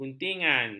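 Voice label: "guntingan", Malay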